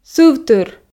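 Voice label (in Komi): "сывтыр", Komi, female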